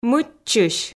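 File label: "мыччысь", Komi, female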